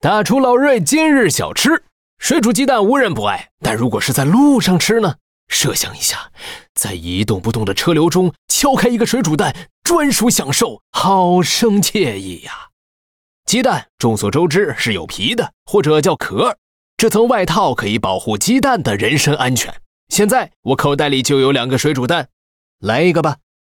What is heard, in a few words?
Mandarin; 瑞奇（双点校园）; 开场白